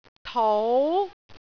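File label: "tou2", Cantonese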